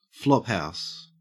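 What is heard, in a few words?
"flophouse", Australian English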